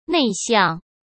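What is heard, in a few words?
"内向", Mandarin Chinese; chinese flashcards; hsk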